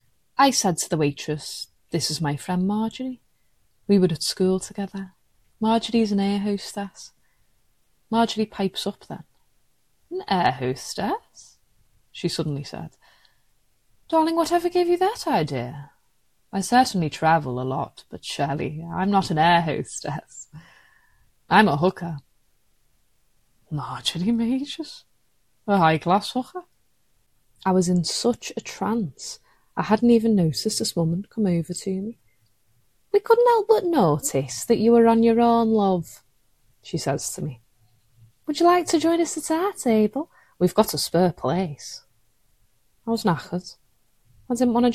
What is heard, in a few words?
audiobook, Liverpool